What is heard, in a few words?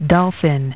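"dolphin", English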